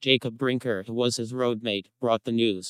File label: Creaky; arctic